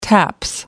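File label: "taps", British English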